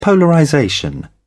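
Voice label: "polarization", British English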